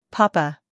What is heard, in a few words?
"Papa", us; sounds of american english; everyone-can-use-english